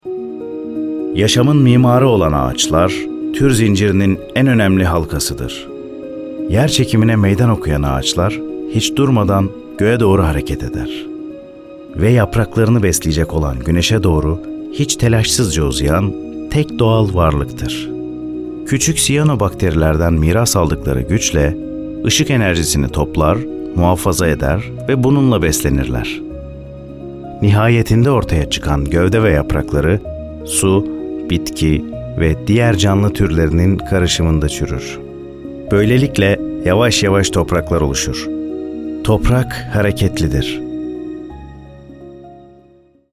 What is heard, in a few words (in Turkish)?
belgesel